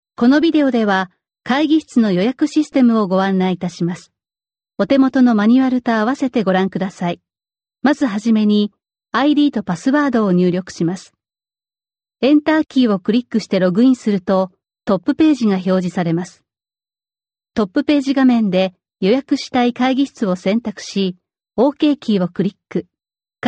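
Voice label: Japanese, female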